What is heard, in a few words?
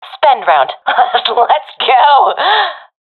request spend, professional fem; vo; Counter Strike Model Pack; CS:GO